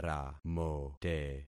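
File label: "ramote", concatenated syllables; Affixing experiment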